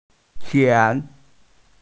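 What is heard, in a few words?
"tian2", Mandarin Chinese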